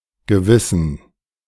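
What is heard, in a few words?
"gewissen", German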